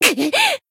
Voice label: damaged jp; sherinu

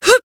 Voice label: attack jp; buria

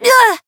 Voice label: Voice damaged; suzette

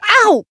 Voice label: Voice damaged; ruina

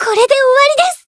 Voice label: finish, jp

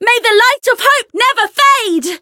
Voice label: chant

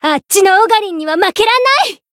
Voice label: limit break jp; flammelapis

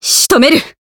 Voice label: finish jp

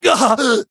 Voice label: Voice damaged; shigure

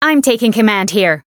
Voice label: variable chant; renri

